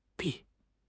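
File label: "臂", Mandarin Chinese; HSK6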